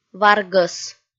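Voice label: "варгӧс", Komi, female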